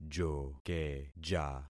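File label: "jokeja", concatenated syllables; Affixing experiment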